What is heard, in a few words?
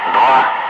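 "5", spoken; Ka-50; Wingman; Digits; DCS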